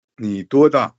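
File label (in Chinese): 多大, Chinese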